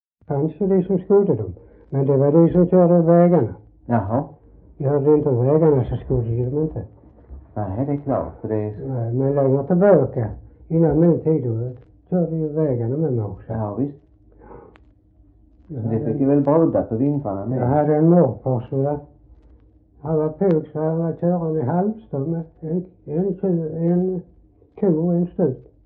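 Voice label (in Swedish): Röstånga Skåne, dialekter; Folkminnen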